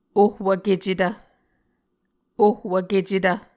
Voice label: "ohwvkecetv", Muscogee